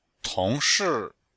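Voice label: "tong shi", Chinese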